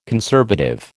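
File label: "conservative", American English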